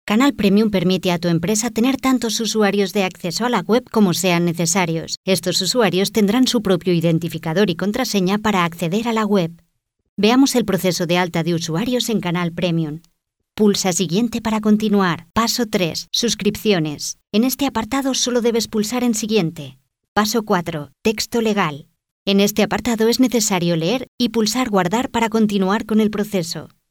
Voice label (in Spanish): E-learning